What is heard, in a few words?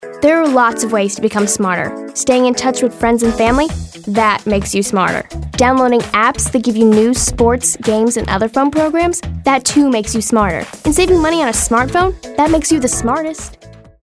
authoritative